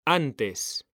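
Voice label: "antes", Spanish, male; mekanta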